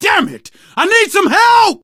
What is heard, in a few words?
help, survivor voice, manager